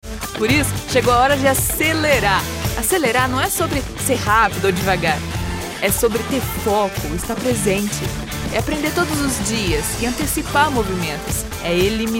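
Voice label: corporate documentary high energy motivational climax female brazilian; portuguese; mondeleez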